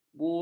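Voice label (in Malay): "bukat", Malay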